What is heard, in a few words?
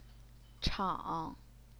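"chang", third tone, Chinese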